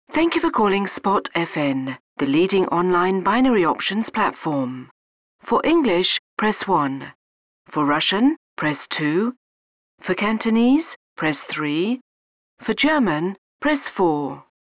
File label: Welcome MSG, professional ivr